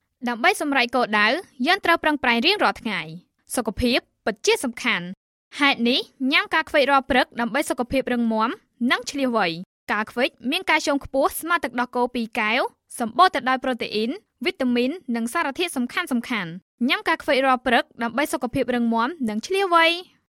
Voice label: Khmer

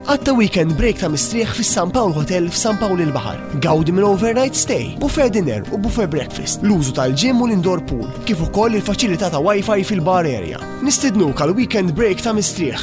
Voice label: Maltese

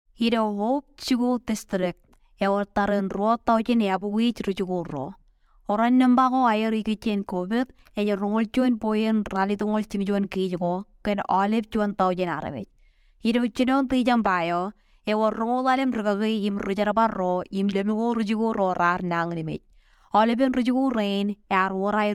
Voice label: Marshallese

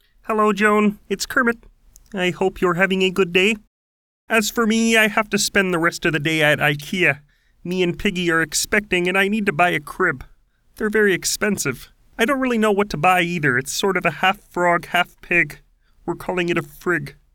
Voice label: Character; Kermit

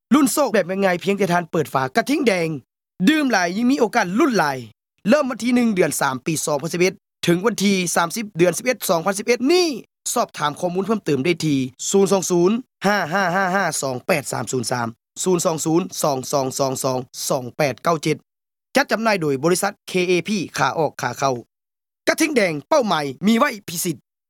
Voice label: Lao

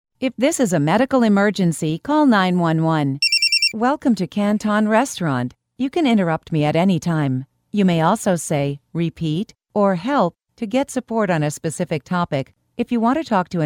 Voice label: US telephony

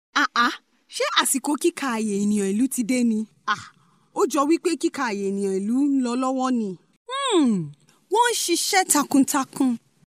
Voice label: Yoruba

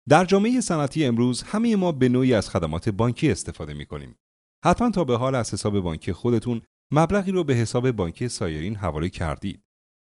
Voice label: Farsi

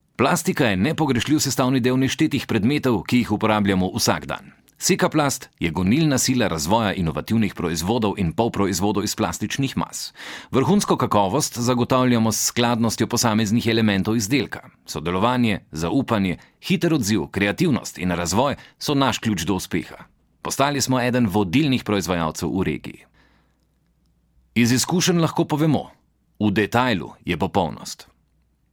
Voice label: SLOVENIAN

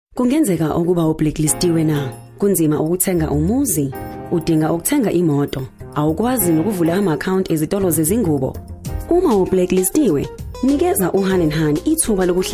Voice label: Zulu

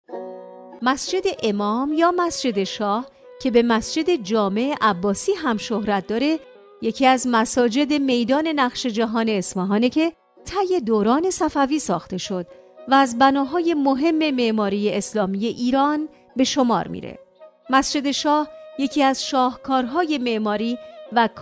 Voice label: Farsi

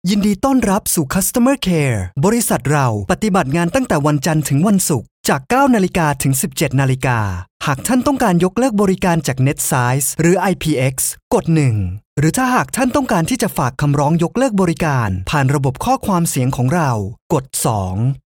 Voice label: Thai IVR

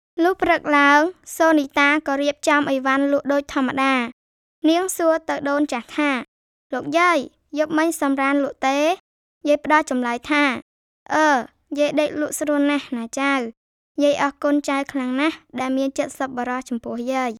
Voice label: KHMER child

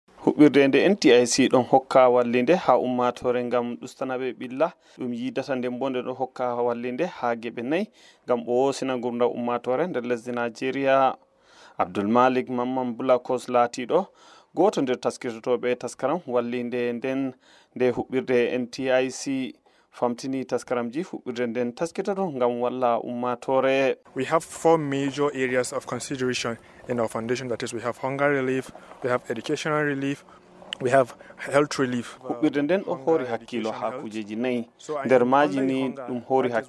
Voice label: Fulani

